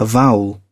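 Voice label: "avowal", British English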